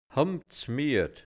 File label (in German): "humdsmiad", Hianzisch Mundart; sehr müde